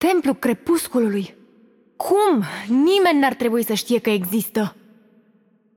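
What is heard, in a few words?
Romanian game outro; bloom minigame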